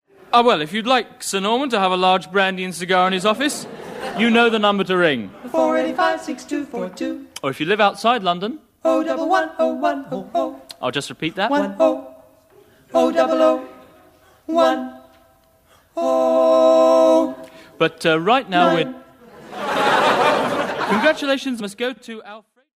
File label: phone in; radioactive